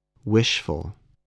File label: "wishful", voice, english